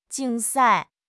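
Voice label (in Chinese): "竞赛", Mandarin Chinese; HSK6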